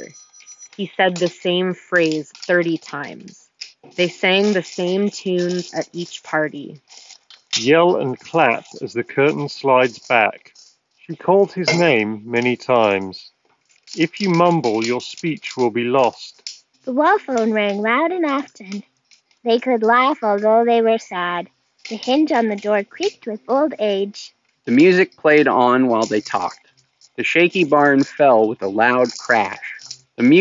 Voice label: Office microphone sample; Sonos ACE

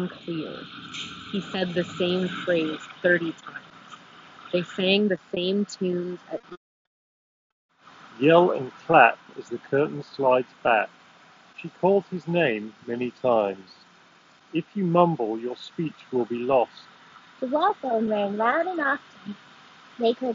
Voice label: Apple AirPods Pro Street microphone sample

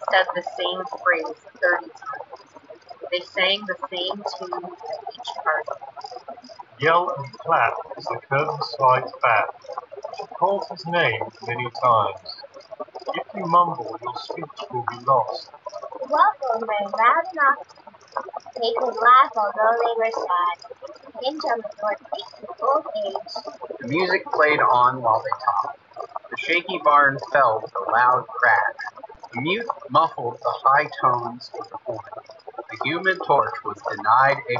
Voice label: Soundcore Space A40 Wind microphone sample; Anker

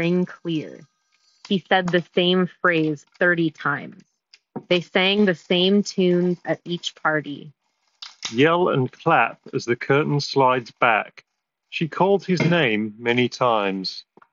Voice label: Office microphone sample; Beats Solo 4

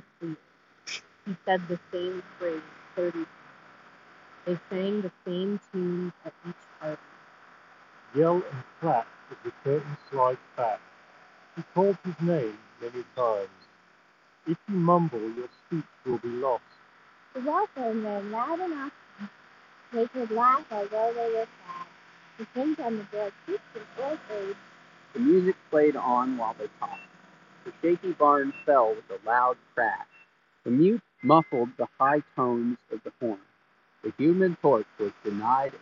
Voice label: Urbanista Lisbon Street microphone

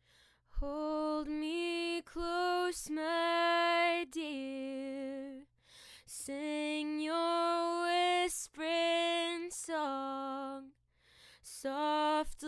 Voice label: Scarlett CM25 MkIII no AIR; focusrite scarlett 2i2 studio

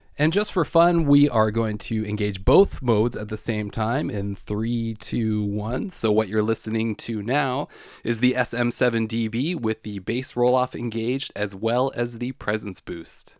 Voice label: SM7dB presence boost bass roll off; shure sm7db

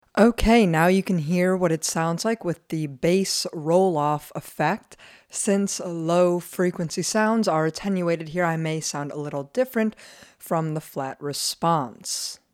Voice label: Shure SM7b voice bass rolloff response

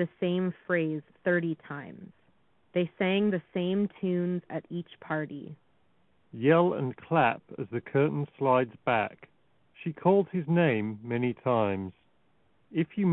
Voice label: Beats Solo3 Ideal microphone sample

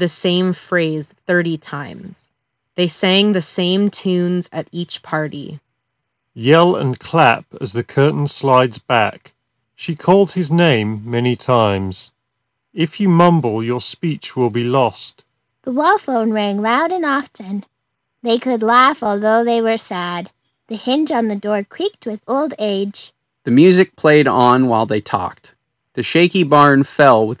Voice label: LG TONE Free Fit UTF7Q Ideal microphone sample

